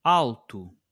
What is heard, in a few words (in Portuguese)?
"alto!", portuguese